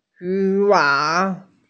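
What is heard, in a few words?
"yu2wang3", Mandarin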